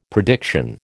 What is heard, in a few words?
"prediction", American